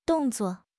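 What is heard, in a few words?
"dong4 zuo4", Mandarin Chinese; HSK4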